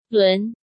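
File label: "Lún", Chinese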